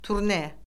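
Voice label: "turne", Azerbaijani; Orfoqrafiya Lüğəti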